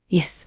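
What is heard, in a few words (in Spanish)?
Voice Acting, es, female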